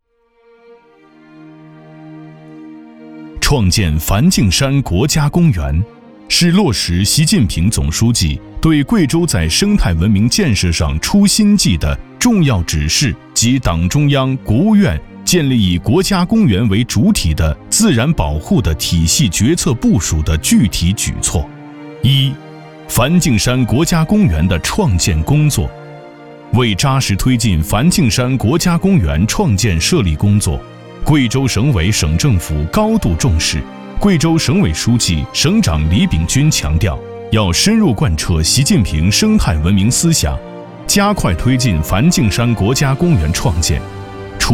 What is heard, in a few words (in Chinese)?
男 国家公园专题 沉稳; 贵州